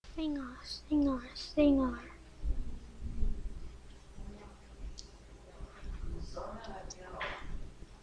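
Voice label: "singer", spoken word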